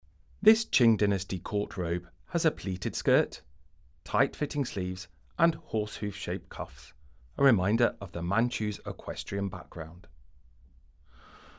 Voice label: ENG, audio guide; Chris Hall collection